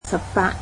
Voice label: eighteen, cantonese

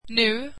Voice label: swedish now

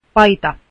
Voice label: shirt, finnish

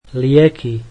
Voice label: slovak medicines

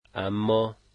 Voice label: but, Dari

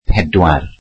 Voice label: welsh four

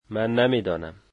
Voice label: I dont know, dari

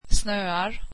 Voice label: swedish snowy

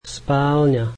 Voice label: slovak bedroom

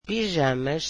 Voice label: portuguese pajamas

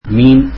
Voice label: tamil fish

